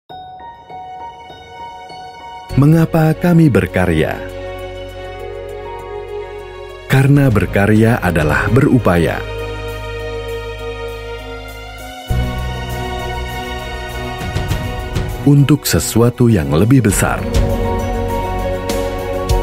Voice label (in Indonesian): Bahasa Indonesia TVC; Bosch